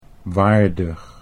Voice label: "waardig", Dutch